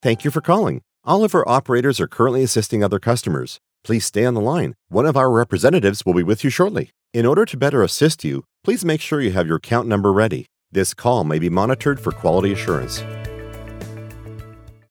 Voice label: IVR-Telephony - Upbeat Helpful - Account Number

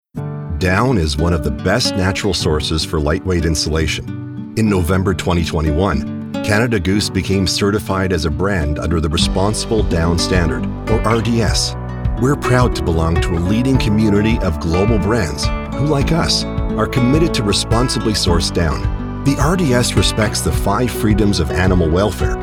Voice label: Corporate; CANADA GOOSE

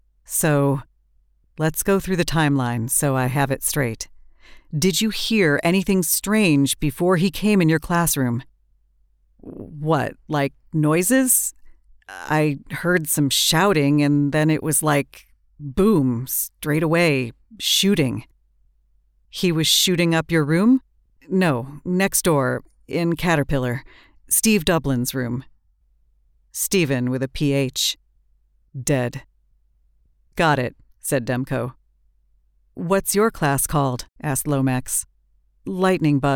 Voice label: First Person Dialogue and Inner Thoughts

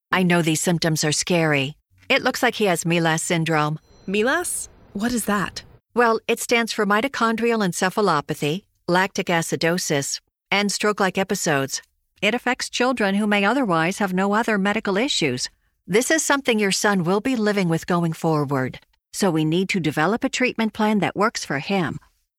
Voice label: E Learning MEDICAL INTERACTIVE

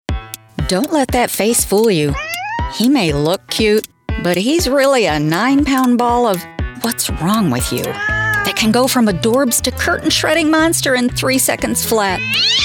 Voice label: Commercial

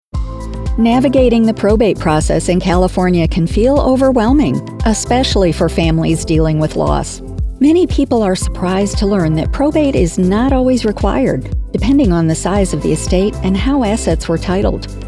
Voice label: Legal Narr LEGAL JOURNAL

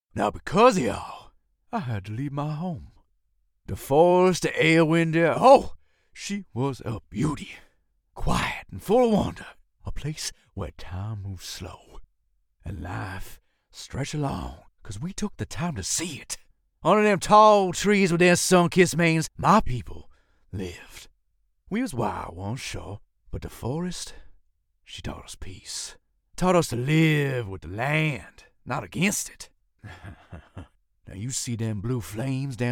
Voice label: voice over demos; Cindertalon

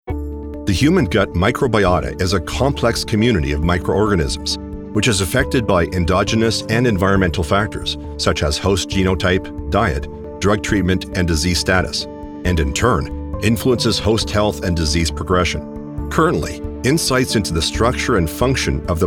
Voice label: Medical Narration; TECHNICAL

